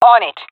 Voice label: affirmation, fem; vo; Counter Strike Model Pack; CS:GO

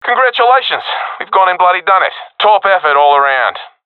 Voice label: round won, professional epic; vo; Source Model Packs; Counter Strike Model Pack; CS2

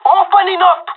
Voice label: in combat, pirate; Counter Strike Model Pack; CS:GO

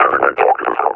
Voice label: announce attack cover, officer; combine; Half Life Alyx; Half Life Model Pack

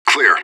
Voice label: radio bot clear; fbihrt

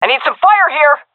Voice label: request fire, vo fem; agents; Source Model Packs; Counter Strike Model Pack; CS2

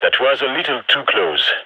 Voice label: radio bot end close; vo; gign

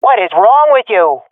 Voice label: sees friend killed, swat fem; Counter Strike Model Pack; CS:GO

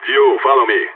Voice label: radio follow me; Counter Strike; CS:GO; phoenix

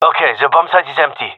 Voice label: bombsite clear, gendarmerie male; vo

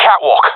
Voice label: catwalk, professional epic; player vo; Counter Strike Model Pack; CS:GO

